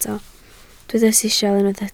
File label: "sisial", Welsh